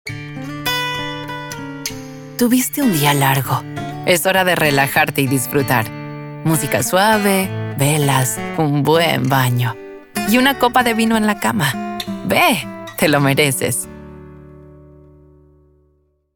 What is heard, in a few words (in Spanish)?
Calido Relajante Warm Relaxing LA